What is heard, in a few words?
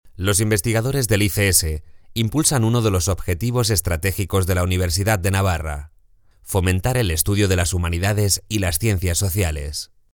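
ES M corporate, Spanish, male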